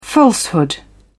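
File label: "falsehood", English, British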